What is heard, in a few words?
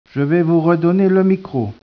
speech, French